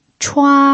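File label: "chua" first tone, Mandarin Chinese pinyin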